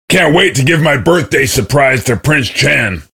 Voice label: chitchat; svencoop; voice commands; SeriousSam

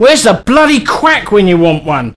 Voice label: Medic, male; svencoop

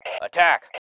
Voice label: ATTACK, MALE

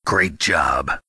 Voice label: cheer, voice commands; svencoop; Snake

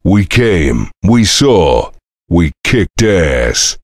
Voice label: cheer; svencoop; voice commands; Duke

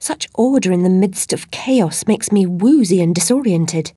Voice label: chitchat; svencoop; Alice

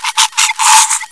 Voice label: death, bot voice commands; svencoop

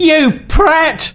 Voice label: Taunt, male; svencoop

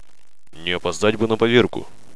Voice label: monologue; svencoop; paranoia; officer

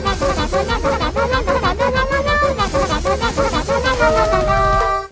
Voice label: chitchat, voice commands; svencoop; Miku

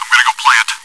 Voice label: chitchat, bot, voice commands; svencoop

